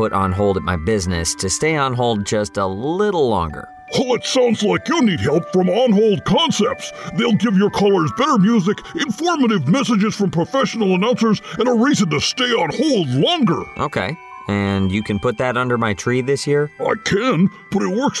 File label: Funny Santa